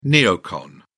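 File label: "neocon", British English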